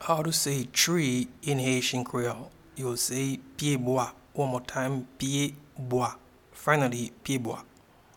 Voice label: Tree in Haitian Creole Pyebwa